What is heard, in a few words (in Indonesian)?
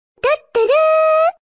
Nada Dering Anime Tuturu